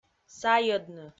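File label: "сайӧдны", Komi, female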